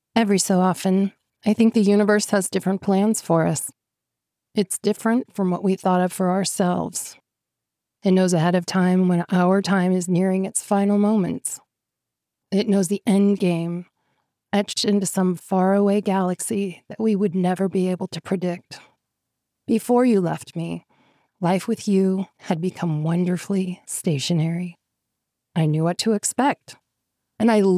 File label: 1st Person Romance